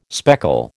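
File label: "speckle", American English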